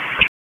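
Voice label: Raleigh Police North District Tactical; wake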